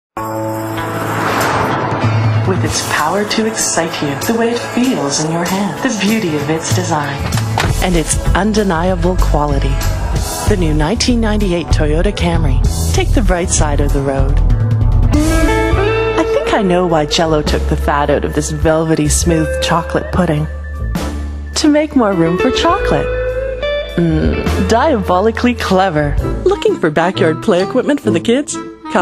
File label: commercial